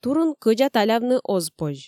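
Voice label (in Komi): "турун кӧдза талявны оз позь", Komi, female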